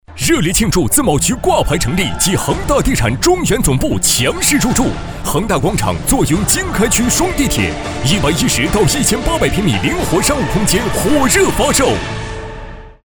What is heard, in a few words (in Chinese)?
广告 大气激昂, 男; 恒大广场